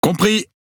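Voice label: Speech Quick Commands Aye Aye, French; World Of Warships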